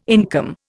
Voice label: "income", Indian